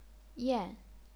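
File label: "yan", chinese pinyin, fourth tone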